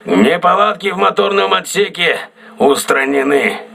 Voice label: voice message engine room repaired, crew ships russian